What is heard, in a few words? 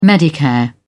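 "medicare", English, British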